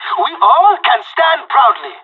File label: radio bot end clean, pirate; Counter Strike Model Pack; CS:GO